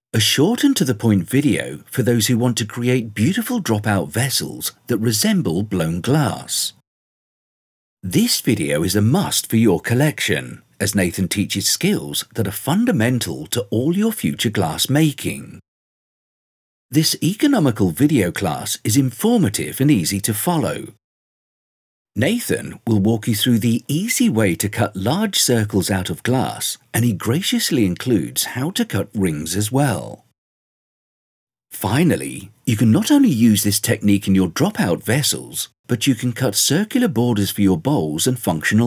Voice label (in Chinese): 外籍英男 视频讲解